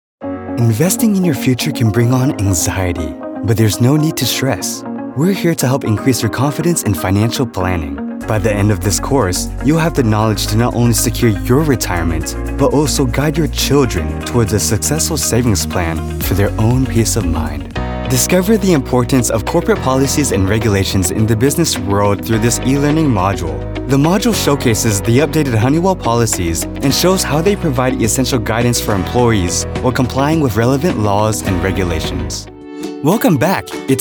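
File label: Narration; Blessed Creative Productions (Rome)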